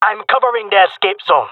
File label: guarding escape zone, jungle male; player vo; Counter Strike Model Pack; CS:GO